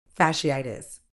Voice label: "fasciitis", uk